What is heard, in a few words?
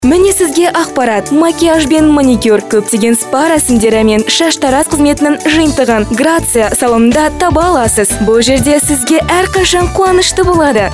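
female kazakh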